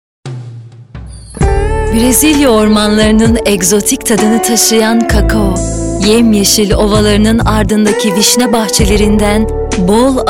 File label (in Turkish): reklam; eti browni